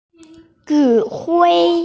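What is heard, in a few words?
"zhihui", Mandarin Chinese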